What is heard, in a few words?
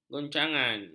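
"goncangan", Malay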